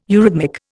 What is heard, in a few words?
"eurhythmic", Indian